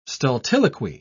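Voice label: "stultiloquy", English, uk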